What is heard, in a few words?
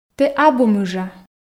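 "тэ абу мыжа", Komi, female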